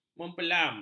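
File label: "mempelam", Malay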